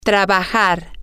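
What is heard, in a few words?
to Work, Spanish, female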